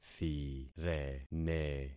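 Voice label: "thirene", concatenated syllables; Affixing experiment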